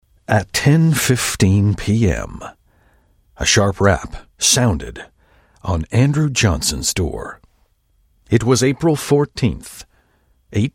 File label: english american narration